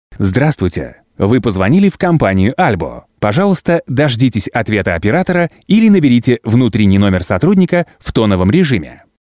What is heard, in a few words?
Russian Male IVR